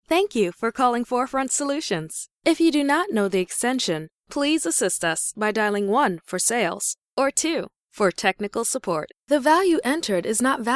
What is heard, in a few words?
IVR Phone System